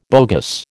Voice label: "bogus", American